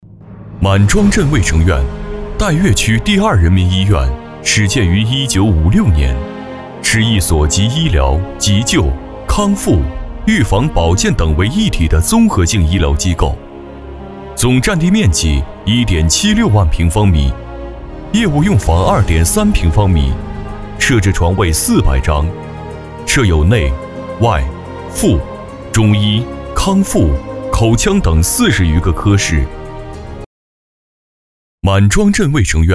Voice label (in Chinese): 男 宣传片 大气; 满庄镇卫生院